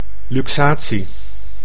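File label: "luxatie", Dutch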